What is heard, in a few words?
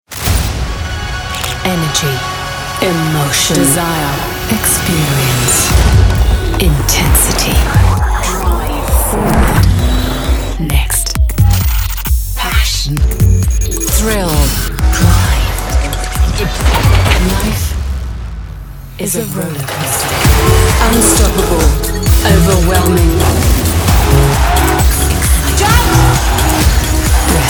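English, advert; MackNext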